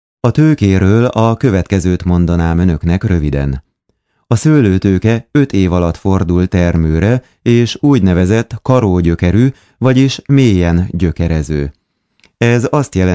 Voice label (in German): Ungarn M